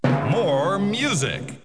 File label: More Music, Radio Jingle Effecten